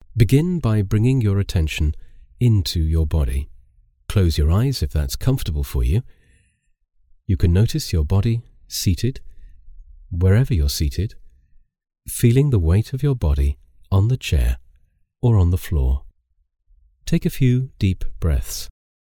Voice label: English voiceover Calm hot exercise